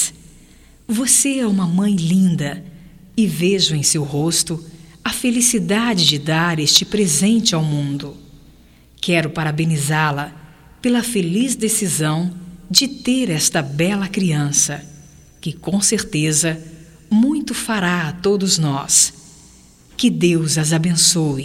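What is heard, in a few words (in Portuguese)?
PARABÉNS NASCIMENTO DO BEBE, female, Telemensagens, Só Voz; Telemensagens 2017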